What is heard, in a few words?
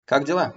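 Russian how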